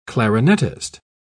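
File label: "clarinettist", British English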